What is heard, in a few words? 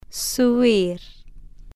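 "subir", Spanish, female, slow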